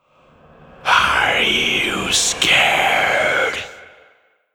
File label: ghostly whisper says are you scared; stormwaveaudio